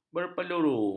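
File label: "berpeluru", Malay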